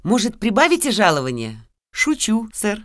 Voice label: Peasant Female